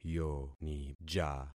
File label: "yonija", concat syllables; Affixing experiment